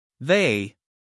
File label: "they", us male